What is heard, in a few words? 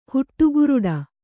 "ಹುಟ್ಟುಗುರುಡಿ", kannada